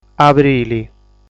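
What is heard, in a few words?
"Abrili", Sardinian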